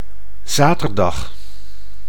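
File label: "zaterdag", Dutch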